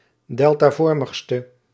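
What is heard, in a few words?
"deltavormigste", Dutch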